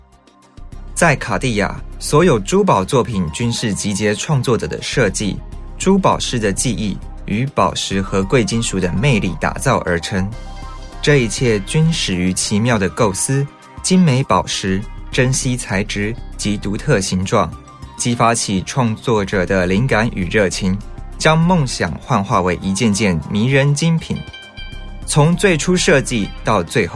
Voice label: Mandarin, Chinese-Mandarin, Male, Teens-20s